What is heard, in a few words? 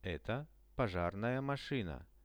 "eto pozhar mashina", Russian; Это пожарная машина.; transport; RUSSIAN VISUAL DICTIONARY